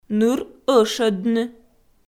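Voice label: "ныр ӧшӧдны", Komi, female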